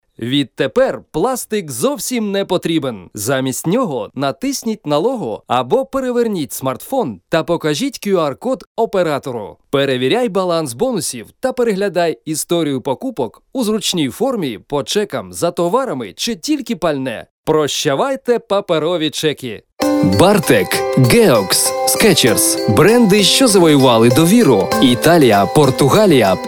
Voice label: Ukr